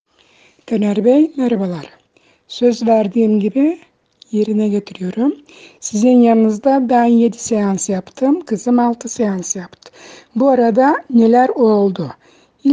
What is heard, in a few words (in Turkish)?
sesli mesaj